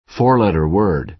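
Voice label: "four-letter word", American English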